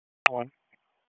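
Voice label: Police Southwest District Disp, radio; Wake; p25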